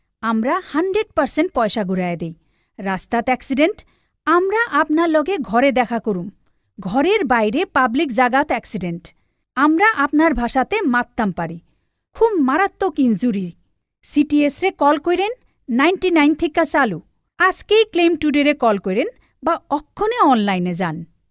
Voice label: Sylheti

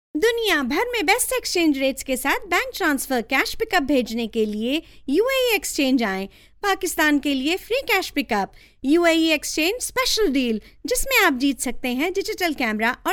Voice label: Urdu

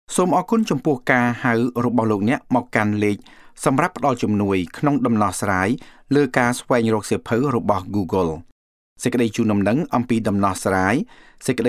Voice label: Khmer

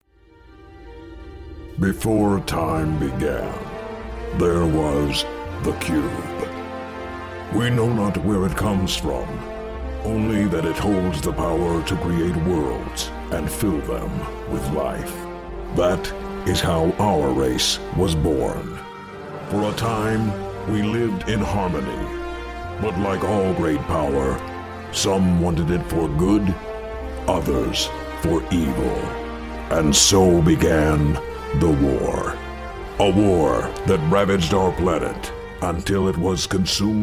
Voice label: Character; Optimus Prime

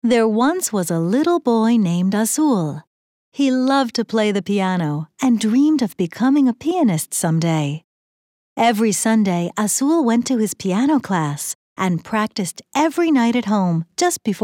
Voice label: story

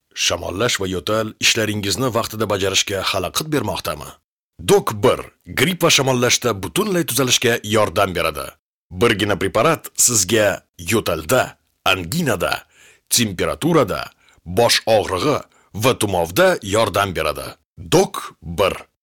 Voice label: Uzbek

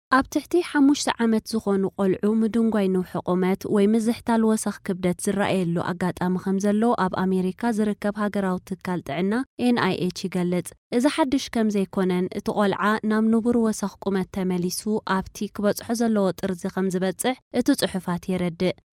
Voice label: Tigrinya

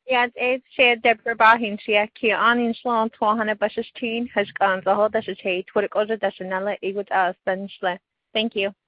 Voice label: NAVAJO

